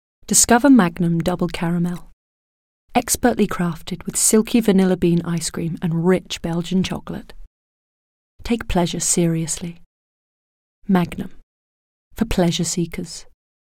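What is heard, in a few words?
British English Commercial; voice demos; GoLocalise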